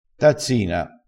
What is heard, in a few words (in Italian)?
"tazzina", vocabolario dialettale